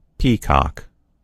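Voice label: "peacock", American English; gcompris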